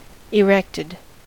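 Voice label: "erected", US English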